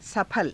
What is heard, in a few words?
"saphala", hindi